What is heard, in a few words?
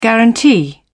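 "guarantee", British English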